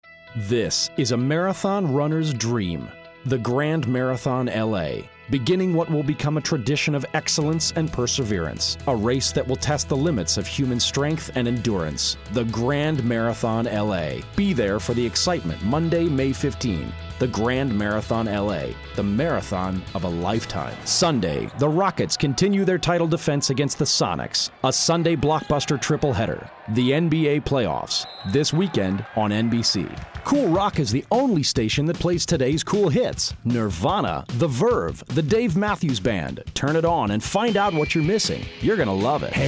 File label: commercial voice over